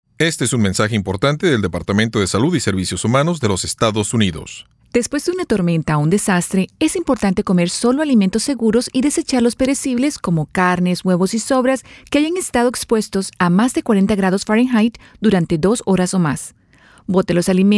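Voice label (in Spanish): Spanish PSA; CDC; Unrefrigerated Food; disasters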